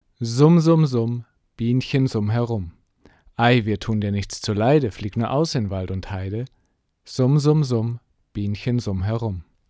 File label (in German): Oktava MK-117 Sprache 15cm; Speech